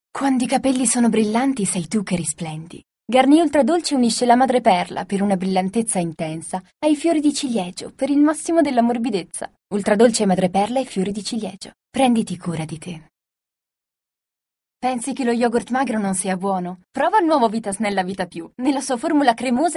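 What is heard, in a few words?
Italian, female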